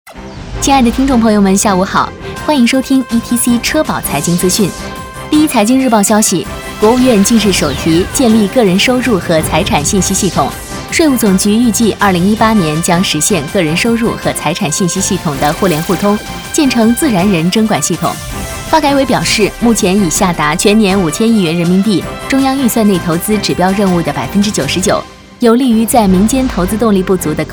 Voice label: 女, 新闻小线性, 亲和自然

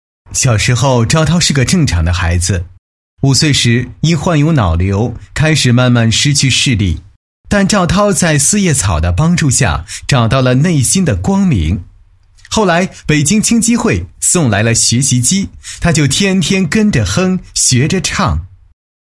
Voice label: 男 独白; 独白-患者赵某简介

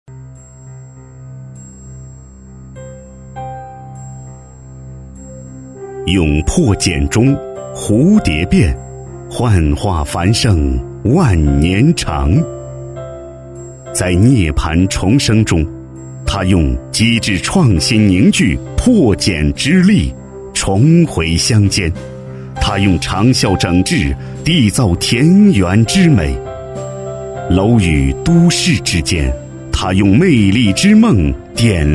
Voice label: 男 专题 大气磁性, 国语男声配音, 浑厚; 蝶变

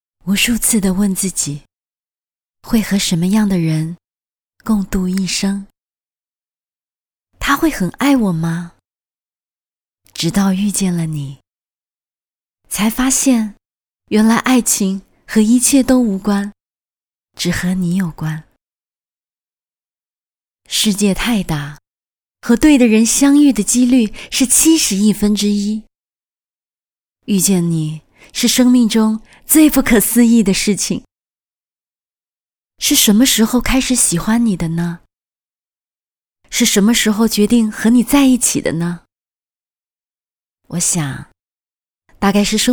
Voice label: 独白 爱情

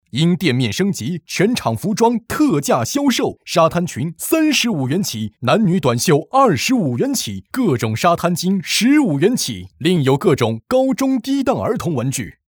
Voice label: 男, 促销广告

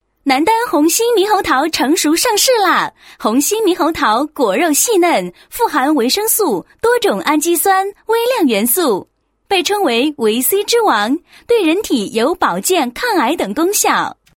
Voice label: 女, 广告, 红心猕猴桃广告; 南丹

